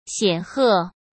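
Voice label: "显赫", Mandarin Chinese; hsk; chinese flashcards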